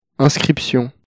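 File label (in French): "inscription", French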